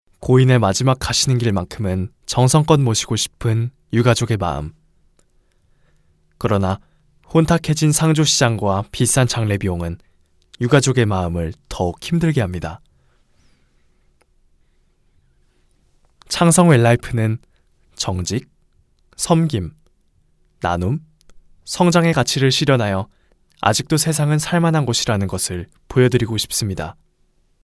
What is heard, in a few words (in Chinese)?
韩语男声